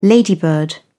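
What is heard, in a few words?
"ladybird", British English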